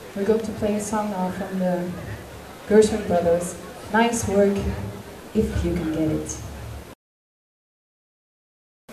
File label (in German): Ansage